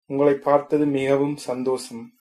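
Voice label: meet, tamil, male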